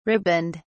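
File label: "riband", British English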